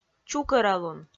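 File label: "чукӧралун", Komi, female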